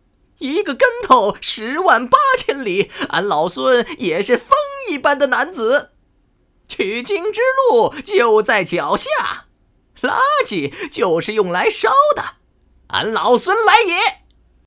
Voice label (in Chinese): 模仿; 孙悟空